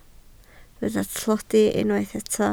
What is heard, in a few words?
"tlodi", Welsh